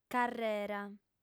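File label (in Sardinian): "carrera", female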